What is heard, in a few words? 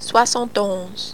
"soixante onze", French; Numbers